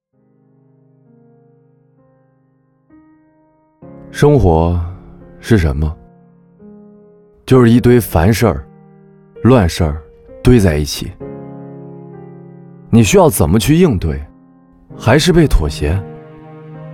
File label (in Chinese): 男国 其他 旁白 年轻内心独白